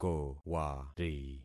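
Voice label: "kowari", concatenated syllables; Affixing experiment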